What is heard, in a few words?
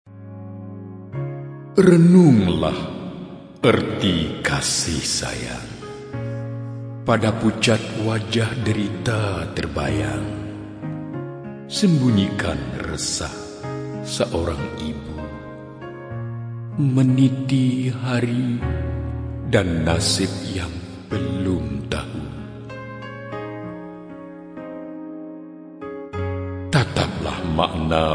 Narration